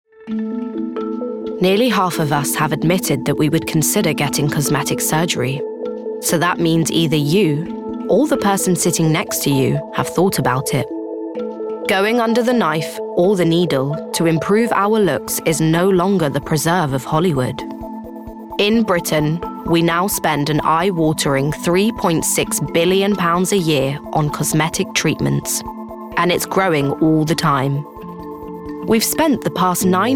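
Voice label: documentary; 20s-30s; Female; London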